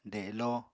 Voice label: "delo", Apatani

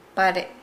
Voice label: Nukuoro; help

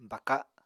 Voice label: "baka", apatani

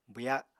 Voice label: "bwya", Apatani; [bɨjaɁ] adj. soft; spongy; pliable

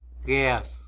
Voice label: "guez", tlacolula; cigarette

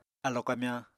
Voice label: "alo kamyañ", Apatani

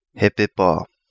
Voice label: Kapingamarangi; Hepepa

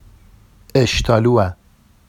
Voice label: "eʃtɑlua", Vafsi; اِشتالووَ

